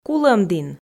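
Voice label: "Кулӧмдін", Komi, female; Усть-Кулом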